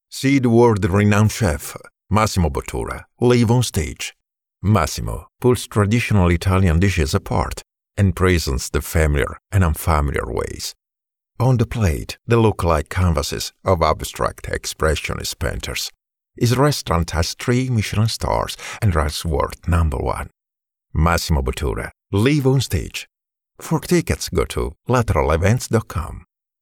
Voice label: Promo Voice Over